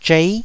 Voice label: "zhei9", Taiwanese syllable